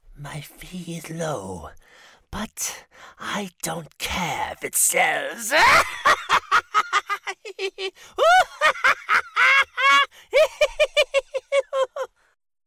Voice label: Comic Book Commercial; The Joker; Smooth/Natural